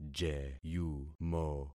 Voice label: "jeyumo", concat syllables; Affixing experiment

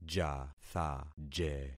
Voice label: "jathaje", concat syllables; Affixing experiment

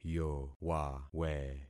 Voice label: "yowawe", concat syllables; Affixing experiment

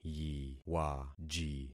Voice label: "yiwaji", concat syllables; Affixing experiment

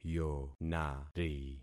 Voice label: "yonari", concat syllables; Affixing experiment

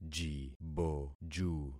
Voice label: "jiboju", concat syllables; Affixing experiment

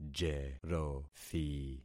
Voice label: "jerothi", concat syllables; Affixing experiment

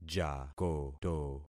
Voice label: "jakoto", concat syllables; Affixing experiment

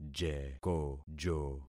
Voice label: "jekojo", concat syllables; Affixing experiment

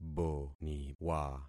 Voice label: "boniwa", concat syllables; Affixing experiment

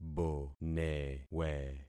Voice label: "bonewe", concat syllables; Affixing experiment

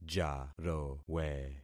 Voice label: "jarowe", concat syllables; Affixing experiment

